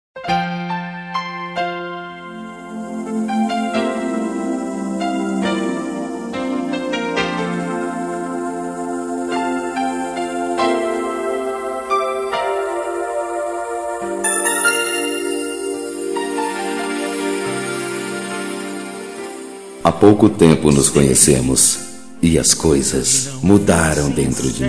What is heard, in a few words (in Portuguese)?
ROM SERTANEJO ENCONTREI VOCE MASC